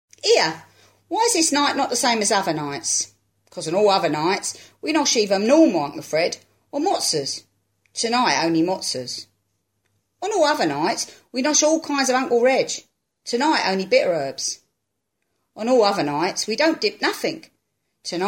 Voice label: cockney